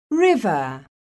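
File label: "RIVER", English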